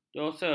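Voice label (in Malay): "jose", Malay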